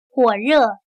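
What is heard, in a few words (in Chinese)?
"火热", Chinese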